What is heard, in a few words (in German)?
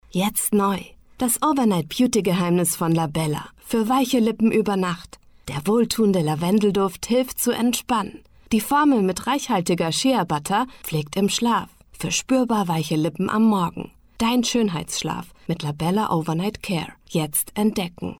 demo werbung; labella